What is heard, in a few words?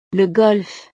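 "le golf", French; deportes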